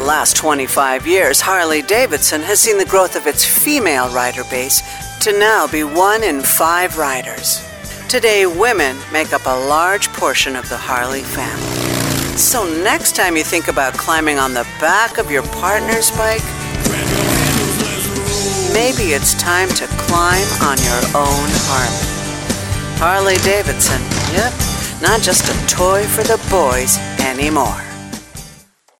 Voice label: Motorcycle Bold Strong Confident low tone, Commercial Voiceover